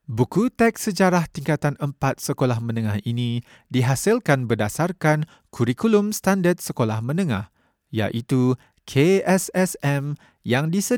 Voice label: Malay M eLearning, malaysian